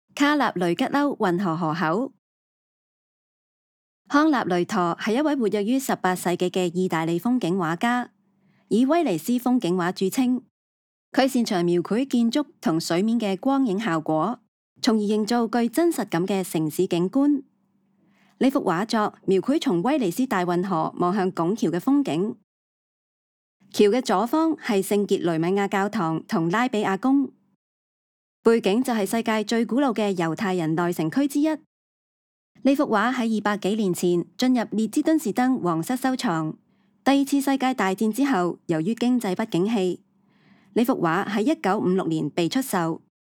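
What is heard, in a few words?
Cantonese, audio guide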